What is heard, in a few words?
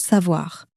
"savoir", french; flashcards